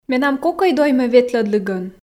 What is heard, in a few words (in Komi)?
менам кокӧй доймӧ ветлӧдлігӧн, f